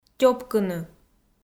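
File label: "тёпкыны", Komi, female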